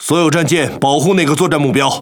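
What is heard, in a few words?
Speech Quick Commands Ally Ship, Chinese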